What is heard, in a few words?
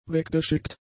"weggeschickt", German; adjectives